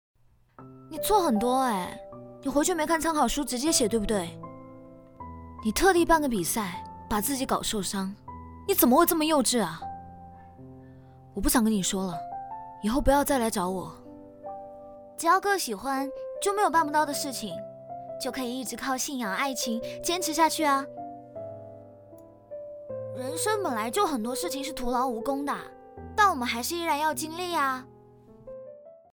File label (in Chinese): 方言类 港台腔影视台词